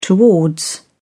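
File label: "towards", British English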